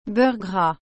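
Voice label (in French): "beurre gras", French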